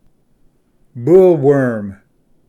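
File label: "bull worm", English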